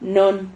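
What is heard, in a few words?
"non", Spanish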